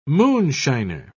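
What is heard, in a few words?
"moonshiner", British English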